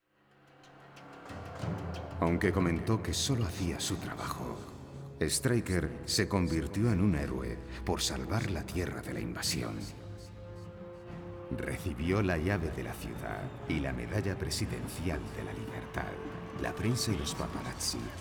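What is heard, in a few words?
music voice over endings Spanish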